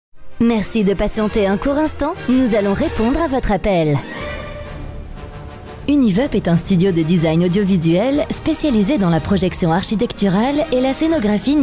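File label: French, female, corporate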